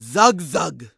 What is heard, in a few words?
Orc Female Standard NPC Greeting